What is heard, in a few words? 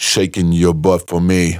Phrase Pitched; Vengeance Samples